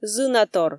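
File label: "зынатор", Komi, female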